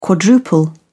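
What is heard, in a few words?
"quadruple", English, British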